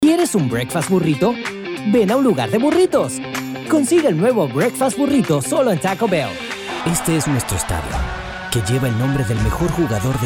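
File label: Latin American Spanish Neutral M comercial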